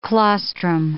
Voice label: "claustrum", English

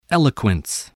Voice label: "eloquence", spelling

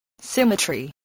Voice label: "symmetry", English

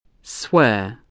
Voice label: "swear", English

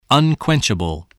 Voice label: "unquenchable", English spelling voice

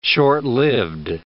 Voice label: "short-lived", words

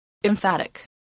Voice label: "emphatic", spelling

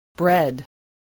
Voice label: "bread", spelling

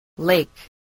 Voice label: "lake", English

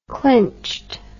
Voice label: "clinched", English

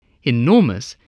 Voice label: "enormous", spelling

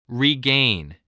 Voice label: "regain", spelling voice

